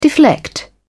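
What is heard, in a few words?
"deflect", British English, dictionary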